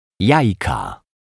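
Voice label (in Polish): "jajka", Polish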